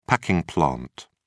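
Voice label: "packing plant", British English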